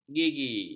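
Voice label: "gigi", Malay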